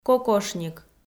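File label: "кокошник" Komi, female; audio dict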